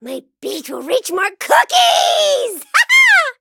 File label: level up, en